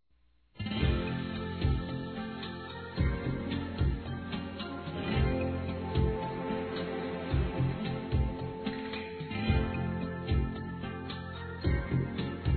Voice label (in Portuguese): ANIV. PAI CRIANÇA-F, Telemensagens; Aniversário Infantil